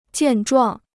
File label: "健壮", Chinese